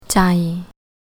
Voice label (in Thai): "Jai", Thai